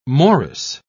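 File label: "morris", British English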